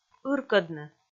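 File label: "ыркӧдны", Komi, female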